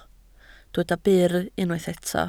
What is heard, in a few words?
"byr", Welsh